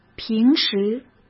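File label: "平时" Mandarin Chinese; chinese-flashcards; hsk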